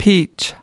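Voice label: "peach", US English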